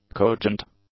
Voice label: "cogent", English; adjectives